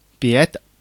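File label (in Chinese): "biéde", Chinese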